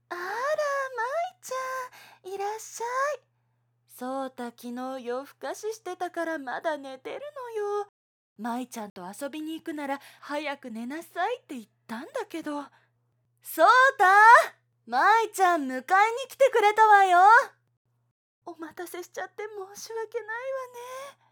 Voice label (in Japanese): 幼馴染のおっとりママ